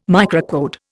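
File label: "microcode", English, Indian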